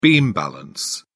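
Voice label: "beam balance", English, British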